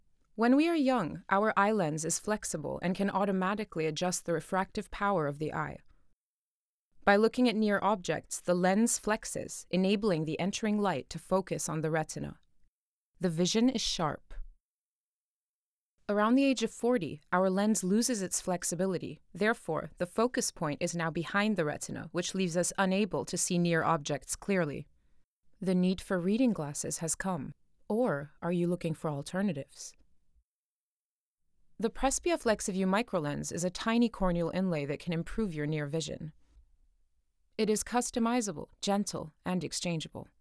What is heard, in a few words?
English